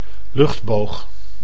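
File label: "luchtboog", Dutch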